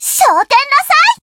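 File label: Voice Japanese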